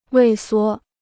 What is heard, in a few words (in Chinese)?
"畏缩", Chinese